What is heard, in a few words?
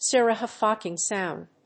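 "Stereophonic sound", English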